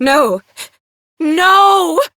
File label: Operator Voice, en; Warframe; New War